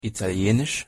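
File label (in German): "italienisch", German; pronunciation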